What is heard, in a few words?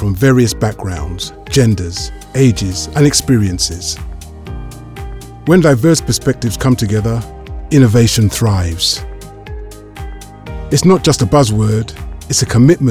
Diversity Explainer Video